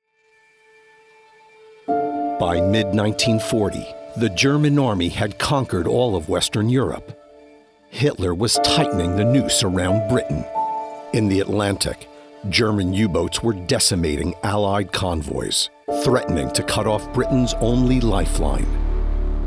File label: Documentary; Enigma